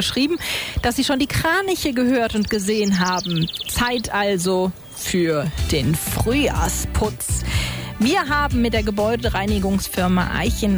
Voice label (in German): radio